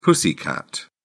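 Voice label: "pussycat", British English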